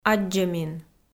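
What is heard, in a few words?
"аддзӧмин", Komi, female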